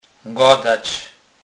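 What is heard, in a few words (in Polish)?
"gadac", Kurpie dialect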